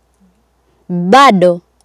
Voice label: "bado", Swahili

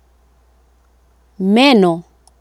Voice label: "meno", Swahili

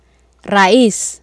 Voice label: "rais", swahili

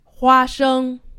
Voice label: "huasheng", Chinese; Survival Chinese

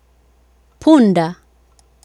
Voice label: "punda", swahili

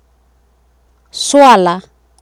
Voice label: "swala", swahili

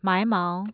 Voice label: mai2 mao2, Mandarin tone

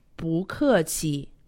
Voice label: "bu keqi", Chinese; Survival Chinese

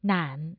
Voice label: "nan3", Mandarin tone

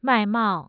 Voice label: mai4 mai4, Mandarin tone

